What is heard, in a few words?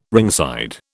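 "ringside", British English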